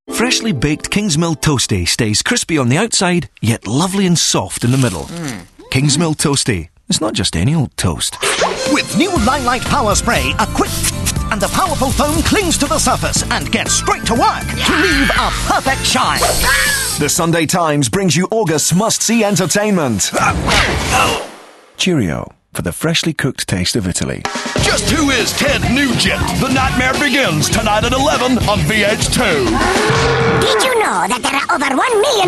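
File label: english scottish male commercial; voice demos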